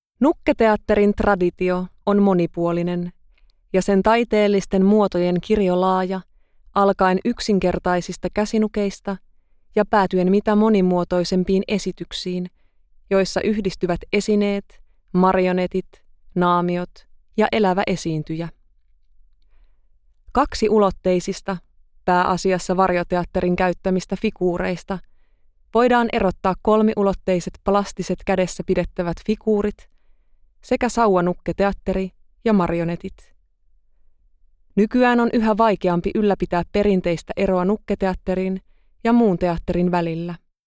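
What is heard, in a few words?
Finnish, Audiobook, female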